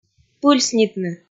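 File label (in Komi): "пыльснитны", Komi, female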